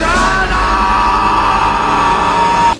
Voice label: shut uuuuuuup; taunts phx; prop hunt x originals